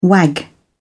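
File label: "wag", British English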